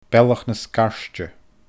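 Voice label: "Bealach na Sgairde", Scottish Gaelic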